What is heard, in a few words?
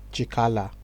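"chikala", Nigerian Pidgin, male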